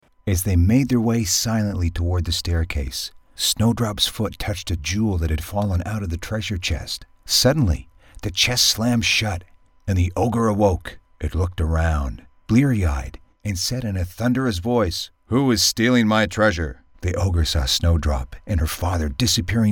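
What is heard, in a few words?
American narration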